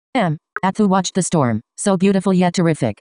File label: voiceover